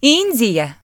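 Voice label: "india", Ukrainian; gcompris; geography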